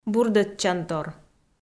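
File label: "бурдӧдчантор", Komi, female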